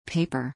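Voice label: "paper", English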